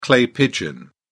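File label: "clay pigeon", English, uk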